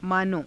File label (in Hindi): "maano", Hindi